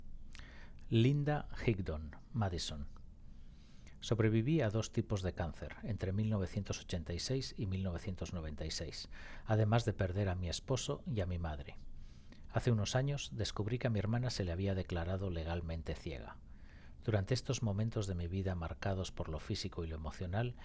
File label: Spanish; 2025 gallery night artist statements